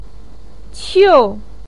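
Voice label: "qiu", Mandarin Chinese fourth tone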